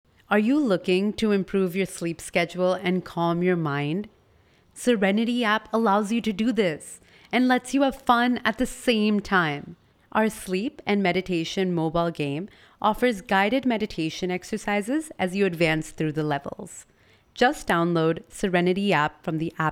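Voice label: commercial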